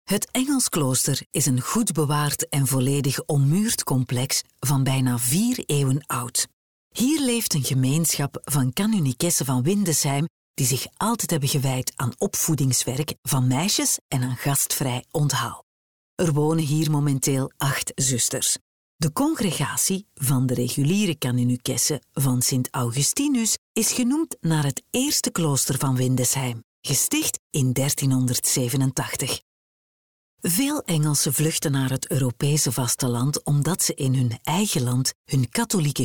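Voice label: Flemish, female, narration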